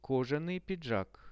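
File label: "leather jacket", Russian; кожаный пиджак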